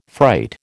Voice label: "fright", American

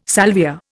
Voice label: "salvia", Indian

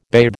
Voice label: "bathe", American

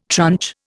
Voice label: "tranche", Indian

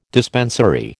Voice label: "dispensary", American

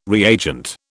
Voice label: "reagent", British

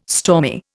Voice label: "stormy", Indian

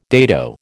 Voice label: "dado", American

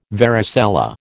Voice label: "varicella", American

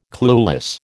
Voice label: "clueless", American

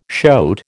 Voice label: "shoat", American

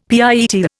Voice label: "pietÅ", Indian; Dictionary

